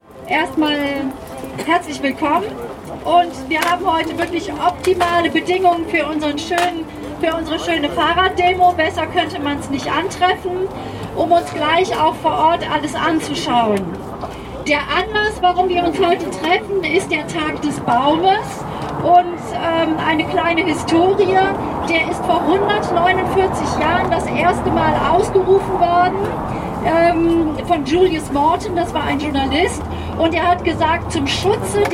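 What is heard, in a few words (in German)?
einführungsansprache; fahrraddemo-projekt-airport-city-west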